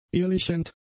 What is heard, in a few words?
"ehelichend", german; adjectives